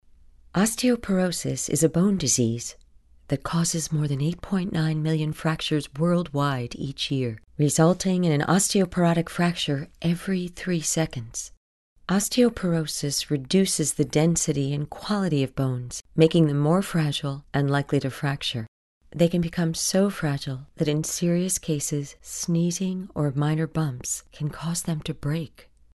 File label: Explainer